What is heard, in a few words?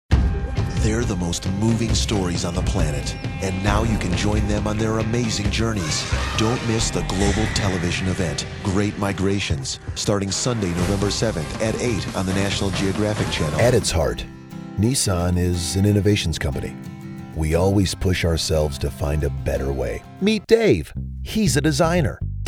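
Commercial, voice over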